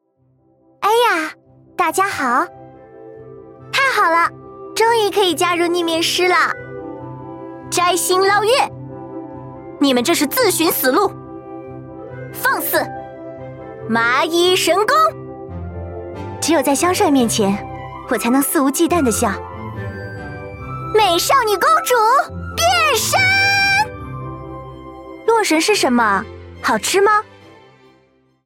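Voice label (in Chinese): shaonv, girl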